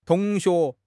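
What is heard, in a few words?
"tong2 xue2", Chinese; HSK1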